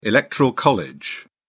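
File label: "electoral college", British English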